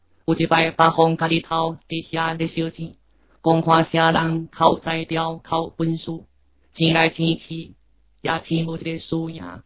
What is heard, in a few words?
Xiamen mono